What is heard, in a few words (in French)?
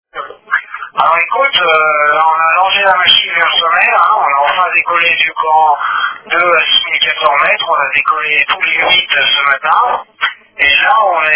Appel telephonique